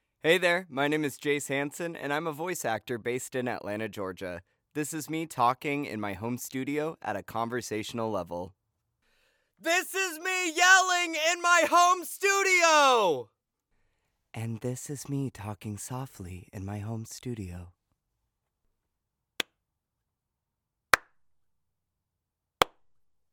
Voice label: RAW Studio